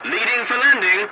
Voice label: voice message landing, english; War Thunder